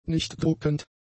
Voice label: "nichtdruckend", German; adjectives